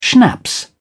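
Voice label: "schnapps", British English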